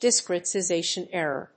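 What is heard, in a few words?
"Discretization error", English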